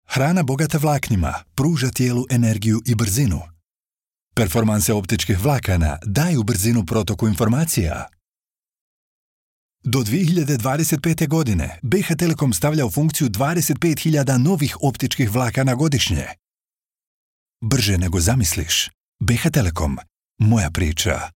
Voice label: Bosnian voice over corporate